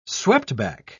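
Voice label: "sweptback", British English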